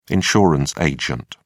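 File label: "insurance agent", British English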